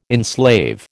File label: "enslave", American